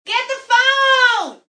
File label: Get The Phone, Female, whine; Yelling Sound Effects